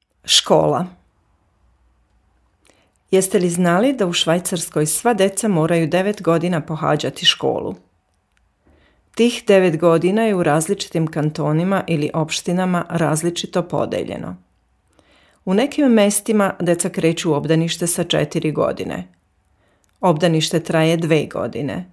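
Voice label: Serbian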